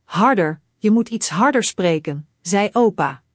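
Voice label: "harder", Dutch; Spelling Gr5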